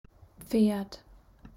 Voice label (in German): "Pferd", German